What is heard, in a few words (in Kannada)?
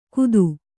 "ಕುತು", Kannada